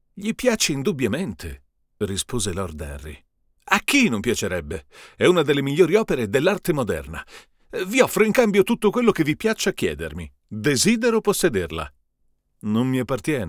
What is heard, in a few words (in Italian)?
AT2020 per Confronto U87AI